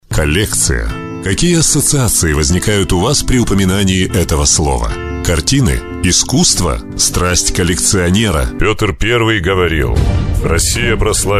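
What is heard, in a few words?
Russian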